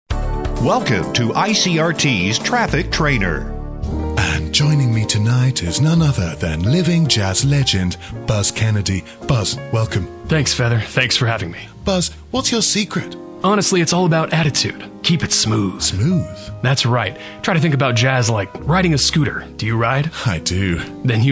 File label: Braking correctly English; TrafficTrainer